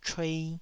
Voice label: "chuei2", Taiwanese

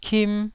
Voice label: "kim1", taiwanese syllables

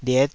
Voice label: "liet5", Taiwanese syllable

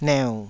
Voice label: "neu3", taiwanese syllables

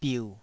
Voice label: "biu3", taiwanese syllables

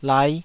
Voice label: "laih5", taiwanese syllables

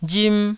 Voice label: "rim1", taiwanese

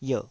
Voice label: "ierh3", taiwanese syllables

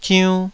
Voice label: "ziunn2", taiwanese

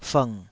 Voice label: "fng3", Taiwanese

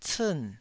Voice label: "ciin4", taiwanese

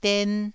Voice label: "den1", Taiwanese

